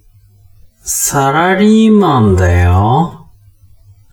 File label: man